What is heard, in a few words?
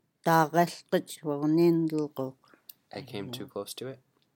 i came to close to it, Kenaitze Indian Tribe